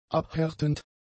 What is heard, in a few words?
"abhärtend", German; adjectives